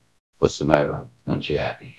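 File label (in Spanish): Voice Acting, Spanish, male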